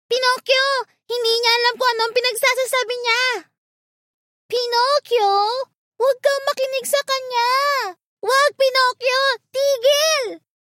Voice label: KID

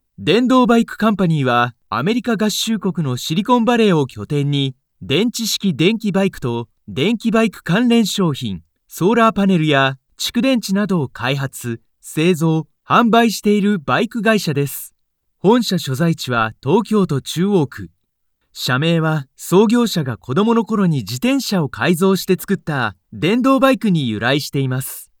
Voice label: Corporate Narration bright tone

